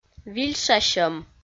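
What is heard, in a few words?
"вильшасьӧм", Komi, female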